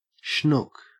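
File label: "schnook", Australian English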